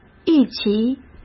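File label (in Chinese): "一齐", Mandarin Chinese; chinese-flashcards; hsk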